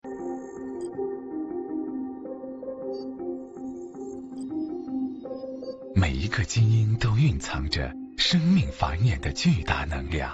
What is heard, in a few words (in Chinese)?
国语男 形象片 科技 国家基因库